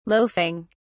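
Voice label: "loafing", British English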